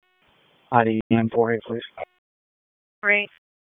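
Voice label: Police Southeast District Dispatch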